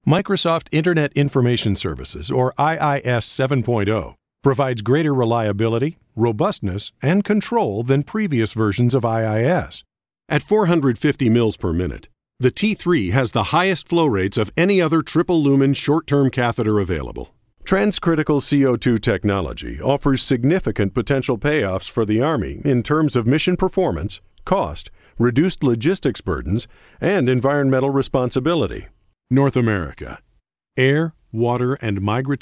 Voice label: Scot American English narration

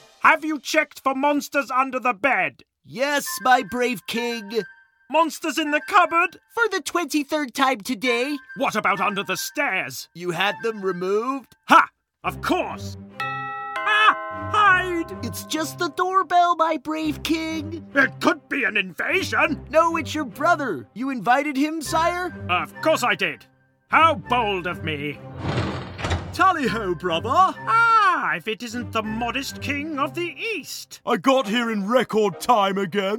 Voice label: animation reel

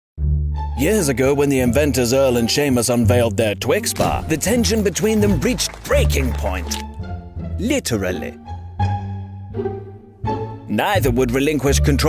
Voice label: Voiceover; Twix

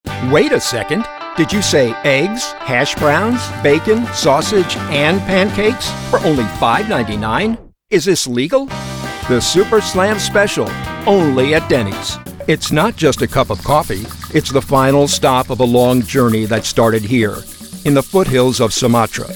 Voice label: comm demo

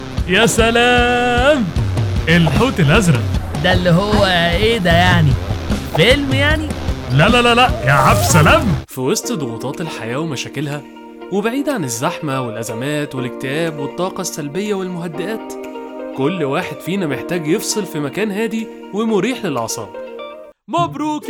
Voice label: Arabic Egyptian

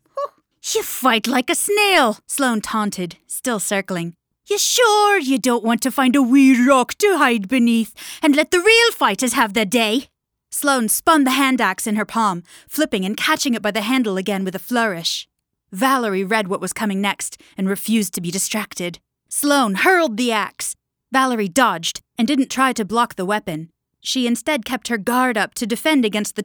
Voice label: audiobook fantasy action UK US

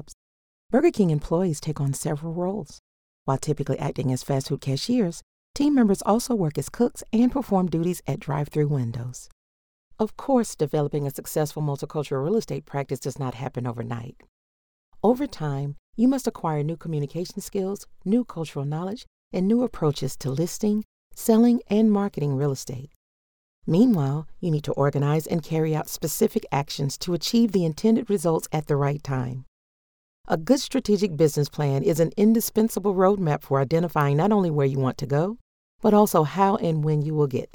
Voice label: e-learning

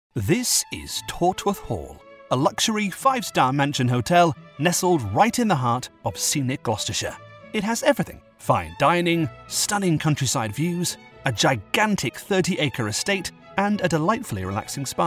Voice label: Narration Reel Neutral British

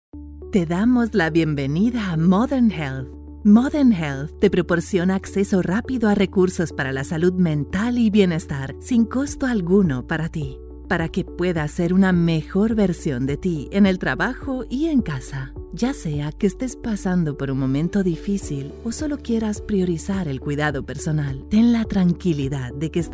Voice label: LATAM SPANISH

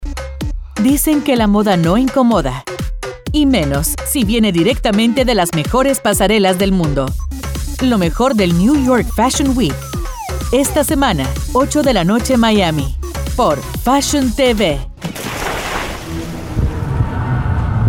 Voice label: TV promo short Spanish

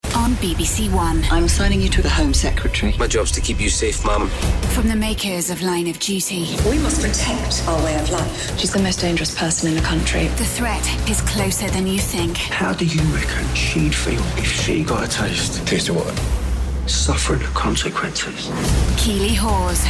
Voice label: commercial showreel

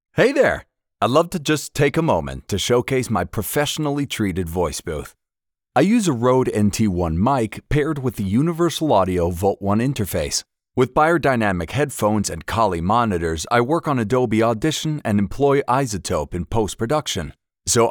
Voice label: Home Studio Test

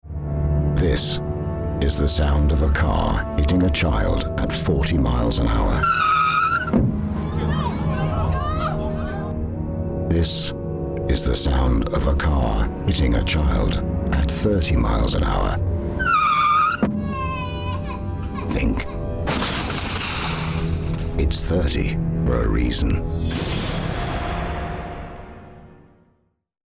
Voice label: Infomerc; 30MPH For Reason

